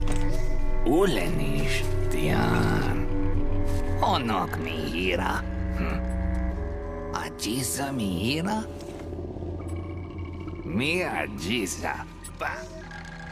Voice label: voiceover